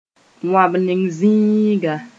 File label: "wãmneg zĩiga", Mooré; pasture